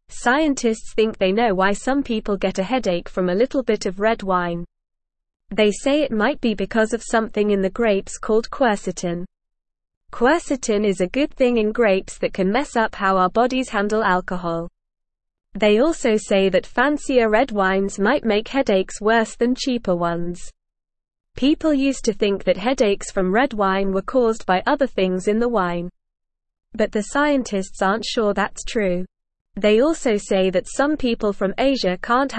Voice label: English Lower Intermediate NORMAL Reading; Why Does Red Wine Give Some People Headaches; English Newsroom